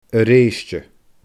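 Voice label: "a reeiste", Scottish Gaelic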